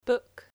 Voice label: "book", English spelling